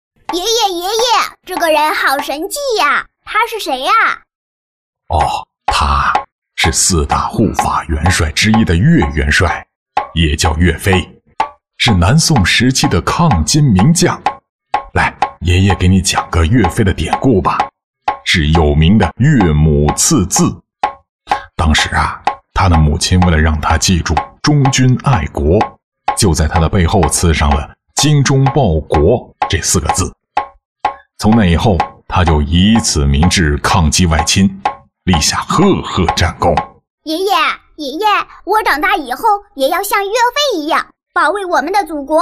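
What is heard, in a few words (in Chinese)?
女童声 动画; 国语女声配音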